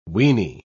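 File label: "weenie", British English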